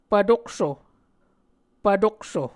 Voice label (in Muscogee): "pvtokso", Muscogee